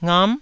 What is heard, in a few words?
"ngam9", taiwanese syllables